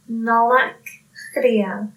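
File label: christmas Irish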